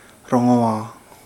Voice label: Kapingamarangi; noisy